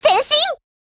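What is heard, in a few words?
ATTACK, Japanese; M99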